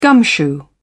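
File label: "gumshoe", British English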